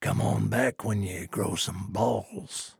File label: trader male neutral quest declined